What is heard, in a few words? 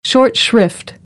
"short shrift", American English; ɔːr - ɔː